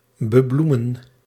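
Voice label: "bebloemen", Dutch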